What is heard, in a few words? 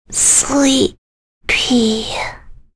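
Vox Dead, voices heroes English; Jane; kingsraid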